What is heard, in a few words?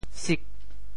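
"sik8", Teochew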